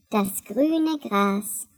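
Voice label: "green grass", German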